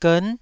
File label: "gern9", Taiwanese syllable